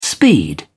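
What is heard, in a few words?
"speed", English, British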